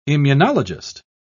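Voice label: "immunologist", British English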